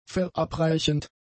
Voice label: "verabreichend", German; adjectives

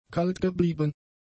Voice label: "kaltgeblieben", German; adjectives

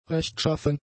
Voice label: "rechtschaffen", German; adjectives

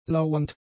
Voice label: "lauernd", German; adjectives

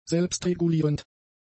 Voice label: "selbstregulierend", German; adjectives

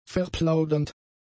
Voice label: "verplaudernd", german; adjectives

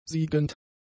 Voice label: "siegend", German; adjectives

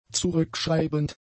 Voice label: "zurückschreibend", German; adjectives

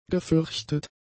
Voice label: "gefürchtet", German; adjectives

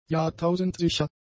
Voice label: "jahrtausendsicher", german; adjectives

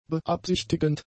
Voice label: "beabsichtigend", German; adjectives

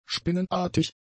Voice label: "spinnenartig", german; adjectives

